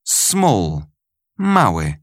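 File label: "small", English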